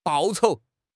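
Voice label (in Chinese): "报酬", Chinese; HSK6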